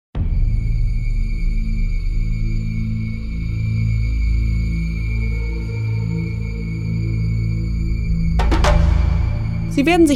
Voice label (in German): Rainbow Serpent German; Welcoming International Guests